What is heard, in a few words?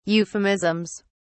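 "euphemisms", British English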